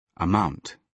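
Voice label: "amount", British English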